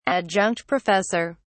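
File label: "adjunct professor", British English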